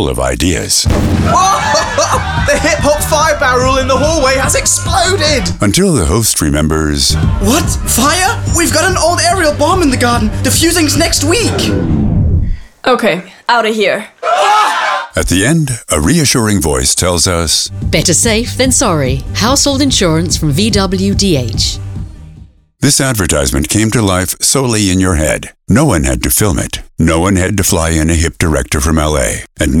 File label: Party Radio